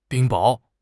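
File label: "冰雹", Mandarin Chinese; HSK; HSK6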